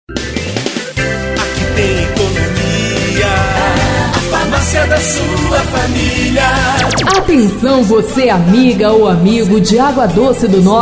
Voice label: voz feminina varejo

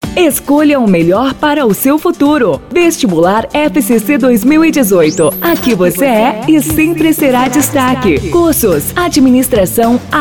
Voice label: locucao brasil

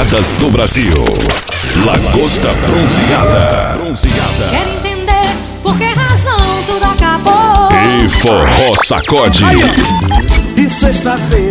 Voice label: chamada diploma bar locutor

